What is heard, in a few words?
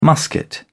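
"musket", British English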